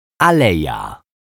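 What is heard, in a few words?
"aleja", Polish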